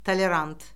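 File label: "tolerant", Azerbaijani; Orfoqrafiya Lüğəti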